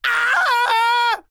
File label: Enemy Generic Human Spawn Disbelief